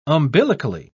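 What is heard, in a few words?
"umbilically", British English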